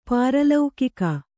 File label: "ಪಾರಲೌಕಿಕ", kannada